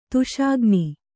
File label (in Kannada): "ದೋಷಘ್ನ", Kannada